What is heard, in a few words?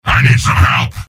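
help me, robot; soldier; mvm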